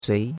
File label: "zui2", chinois; Cours-de-Chinois